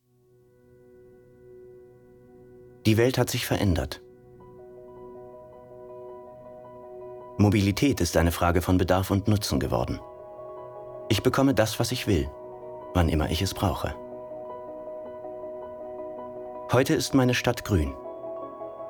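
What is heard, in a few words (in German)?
imagefilm, deutsch männer; sprecher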